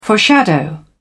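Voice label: "foreshadow", British English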